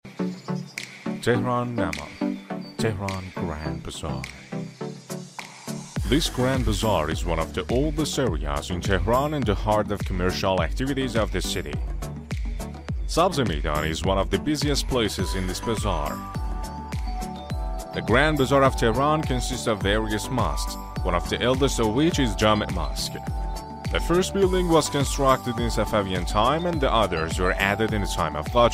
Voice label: narrator